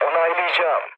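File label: Turkish player killed enemy, mood low, speech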